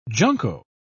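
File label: "junco", British English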